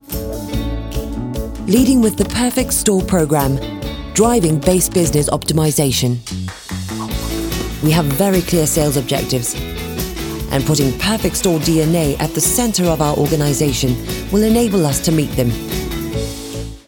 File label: corporate